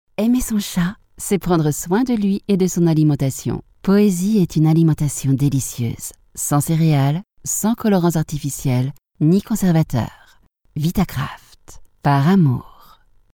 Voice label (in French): Pub web, voix off femme